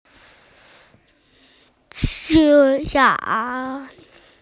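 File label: "umbrella", Mandarin; yu3san3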